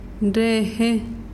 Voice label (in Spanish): "agua", mazahua